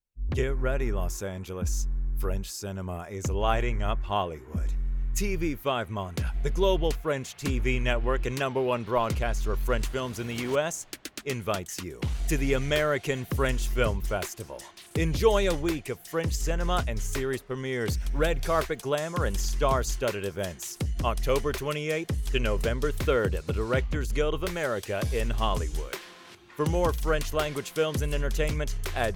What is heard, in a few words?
Energetic Mix, voice acting